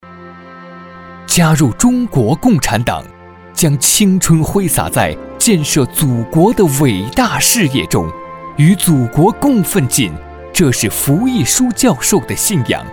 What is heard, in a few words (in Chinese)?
男 专题片 人物介绍